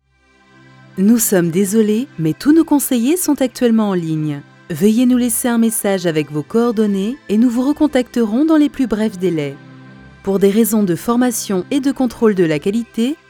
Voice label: IVR

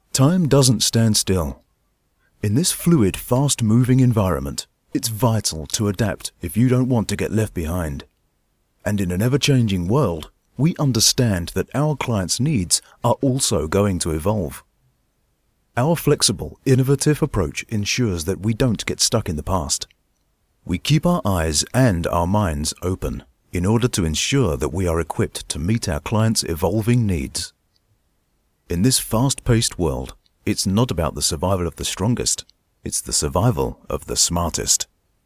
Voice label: Corporate Video

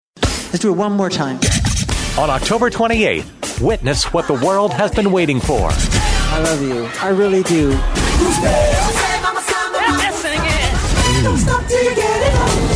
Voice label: Trailer; Voice Realm; Movie Trailer Voice Over

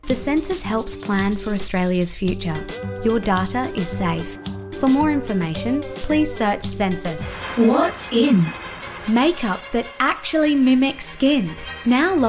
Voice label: Voiceover

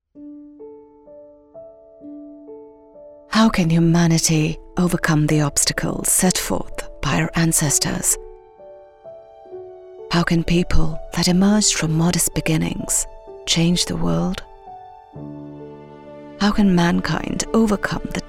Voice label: emotional commercial neutral UK

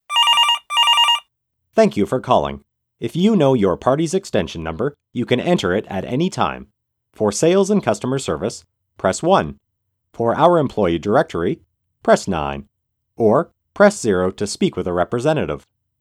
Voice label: Telephone Answering System

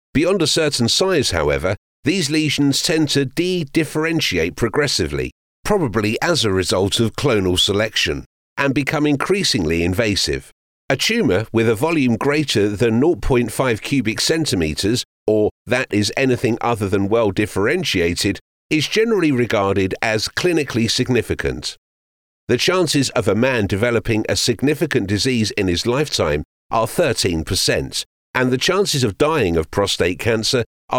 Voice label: Medical Narration Sample

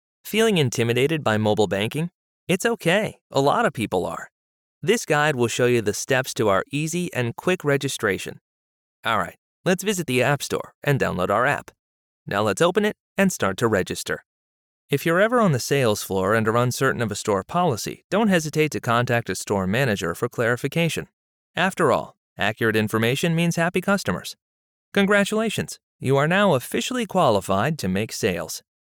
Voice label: E Learn and Corporate Narration

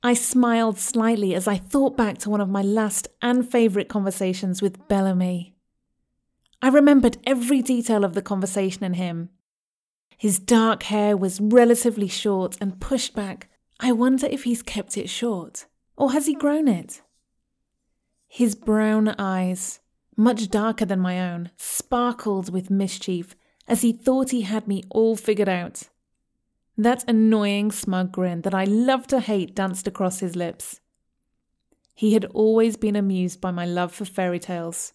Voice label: Audiobook sample

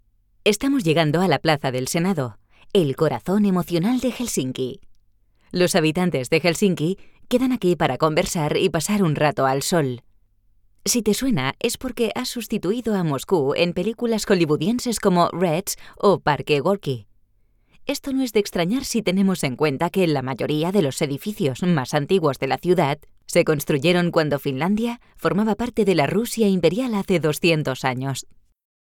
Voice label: Spanish audioguide bus; Helsinki

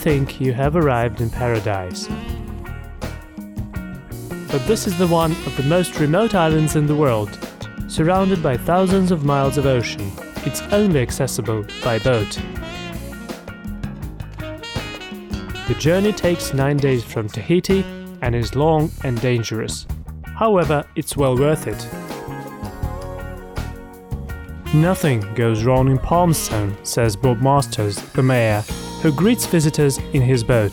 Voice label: English with music

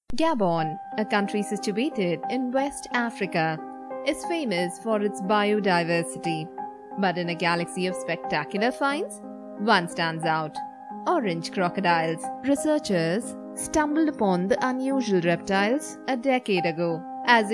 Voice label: Eng narration Music

